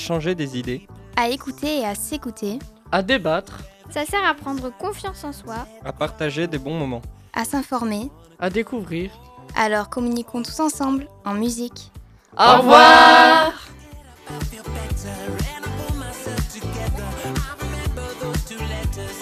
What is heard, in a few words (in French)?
message radio journee mondiale de la radio